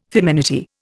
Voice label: "feminity", Indian

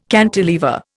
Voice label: "cantilever", Indian

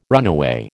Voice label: "runaway", American

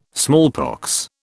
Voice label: "smallpox", British

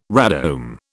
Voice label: "radome", British

